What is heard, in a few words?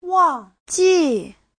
"wang4 ji4", Chinese; 忘记; (động từ) quên; Giáo trình chuẩn HSK3